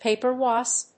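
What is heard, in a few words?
"paper wasp", English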